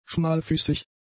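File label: "schmalfüßig", German; adjectives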